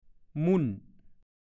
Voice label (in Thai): "มุ่น", ภาษาโคราช; ละเอียด แหลก